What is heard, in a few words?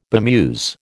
"bemuse", American